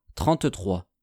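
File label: "trente-trois", French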